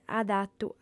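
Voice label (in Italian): "adatu", lingua sarda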